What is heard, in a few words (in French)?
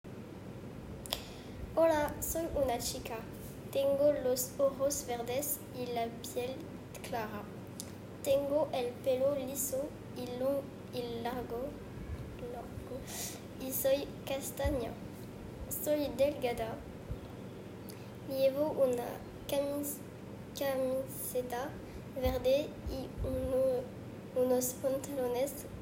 Enregistrement Espagnol; description physique